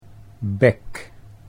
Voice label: "bek", Dutch

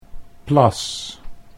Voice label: "plas", Dutch

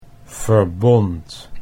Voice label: "verbond", Dutch

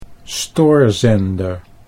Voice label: "stoorzender", Dutch

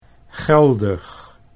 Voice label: "geldig", Dutch

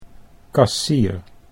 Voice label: "kassier", Dutch

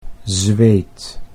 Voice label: "zweet", Dutch

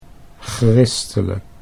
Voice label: "gristelijk", Dutch

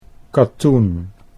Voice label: "katoen", Dutch

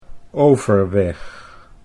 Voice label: "Overweg", Dutch

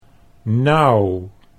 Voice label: "nauw nou", Dutch

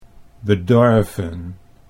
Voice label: "bedorven", Dutch

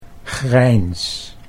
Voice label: "grijns", Dutch

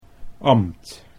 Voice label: "ambt", Dutch

